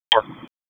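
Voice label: Raleigh Police Downtown District Dispatch, p25; wake p25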